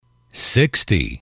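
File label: "sixty", English, male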